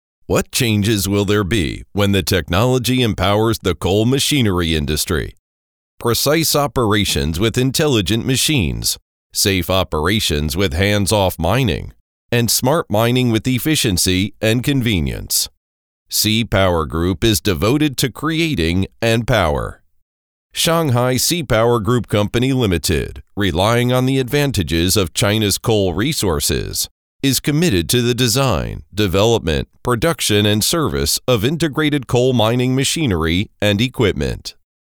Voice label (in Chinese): 外籍 常规专题